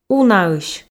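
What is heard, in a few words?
"унаысь", Komi, female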